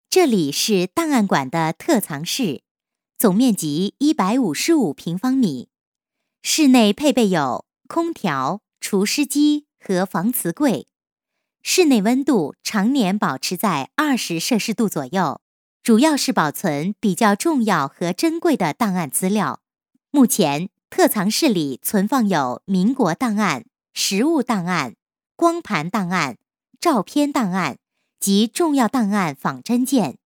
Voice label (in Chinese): 女 课件 特藏室简介, 国语女声配音; 省台女配